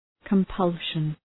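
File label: "compulsion", English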